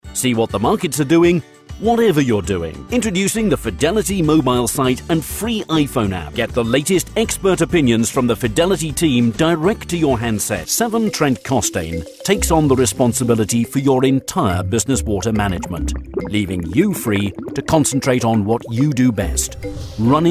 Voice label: Corporate, voiceover